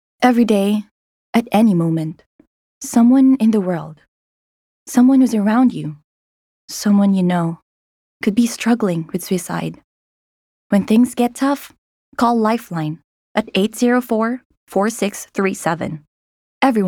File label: English (Concerned, Hopeful)